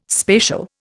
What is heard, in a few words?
"spatial", Indian